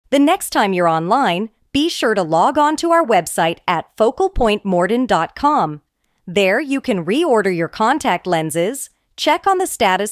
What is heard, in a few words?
female